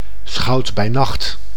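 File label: "schout-bij-nacht", Dutch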